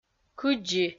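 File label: "кыдзи" Komi, female; kpv audio dict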